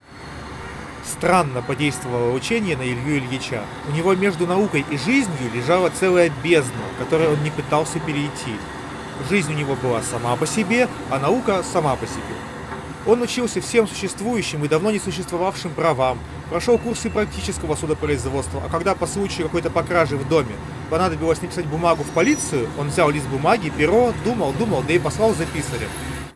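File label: Recorder noise; Запись на профессиональный рекордер (в шумной обстановке); ugreen hitune t3 pro; Голос стал еще более «зажатым», при этом на записи хорошо слышны среднечастотные звуки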